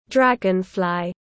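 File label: "Dragonfly", English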